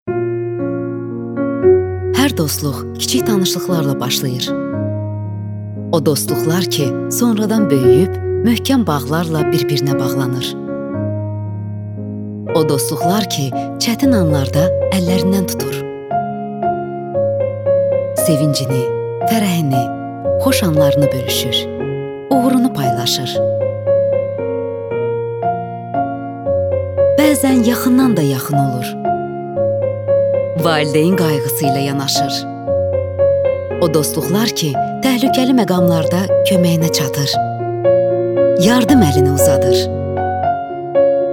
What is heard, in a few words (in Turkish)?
Azerice Kadin, Azerice seslendirme